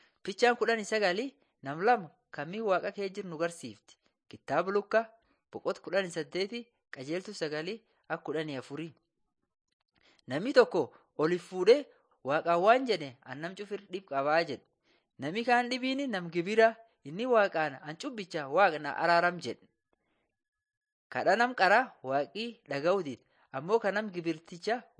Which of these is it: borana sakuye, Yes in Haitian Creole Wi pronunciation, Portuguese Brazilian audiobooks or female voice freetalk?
borana sakuye